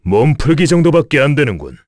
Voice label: Victory, kr; Bernheim; kingsraid

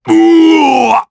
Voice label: Damage, jp; Bernheim; kingsraid

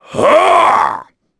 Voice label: Casting, jp; Kaulah; kings raid

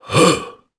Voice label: Casting, jp; Ricardo; kingsraid; voices heroes

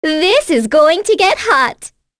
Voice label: Vox Skill, en; kingsraid; voices heroes

